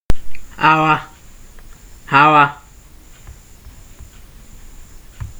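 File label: "our", Nigerian Pidgin, male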